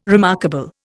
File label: "remarkable", Indian